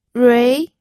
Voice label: "rui2", pinyin